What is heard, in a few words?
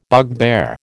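"bugbear", American English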